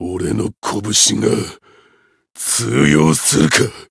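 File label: encounter fear, Japanese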